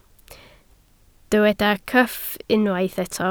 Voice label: "cyff", Welsh